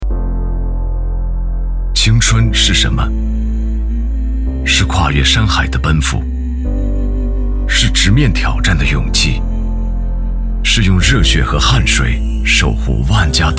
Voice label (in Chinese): 男, 专题; 青春的答案